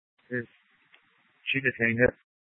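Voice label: Tempe police department, scanner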